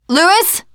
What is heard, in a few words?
name louis, teengirl, voice; player; survivor